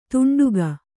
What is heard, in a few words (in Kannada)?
"ತುಂಡುಗೈ", Kannada